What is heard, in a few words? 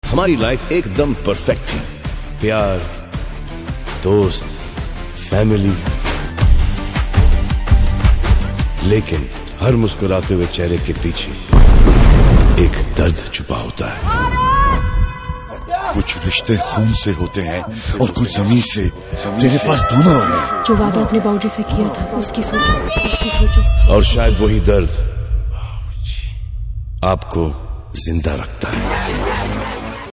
Dialogue Ringtone; BHARAT Trailer; Salman Khan